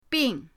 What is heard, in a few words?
"bing4", pinyin; pinyindct-open